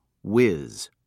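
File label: "wiz", English, British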